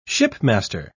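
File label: "shipmaster", British English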